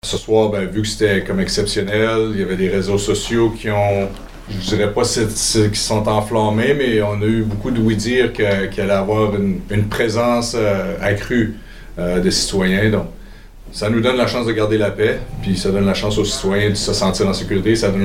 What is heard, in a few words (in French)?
reportage manif circonscription saint quentin